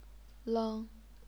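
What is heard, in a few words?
"leng", Chinese, first tone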